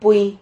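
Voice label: "puy", Spanish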